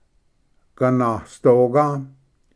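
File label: "ganasdoga", Cherokee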